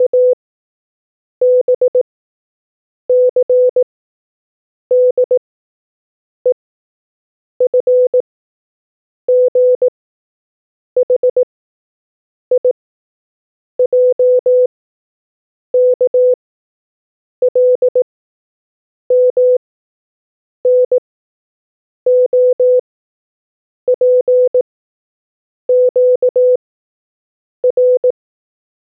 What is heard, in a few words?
alpha numbers 500 pitch